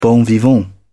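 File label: "bon vivant", British English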